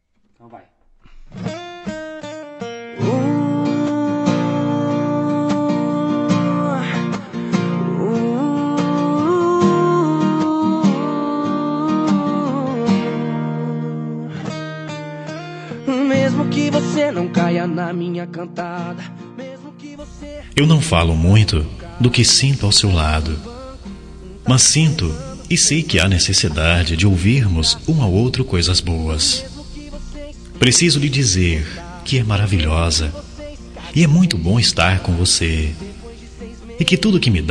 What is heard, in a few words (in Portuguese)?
Declaração Discreta Masculino